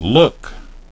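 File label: "look", English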